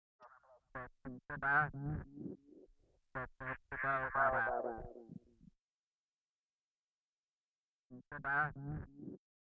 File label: secret voice tape